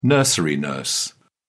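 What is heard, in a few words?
"nursery nurse", English, British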